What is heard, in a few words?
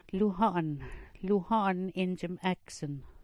"luho'on", smalgyax